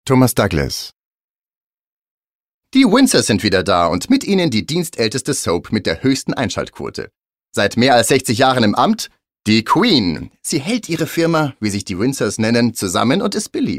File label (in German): Off Kommentar; People Magazin; Die Windsors